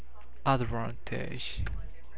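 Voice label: "advantage", Japanese, male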